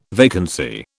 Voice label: "vacancy", British